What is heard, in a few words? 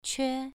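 "que", tone 2, pronunciation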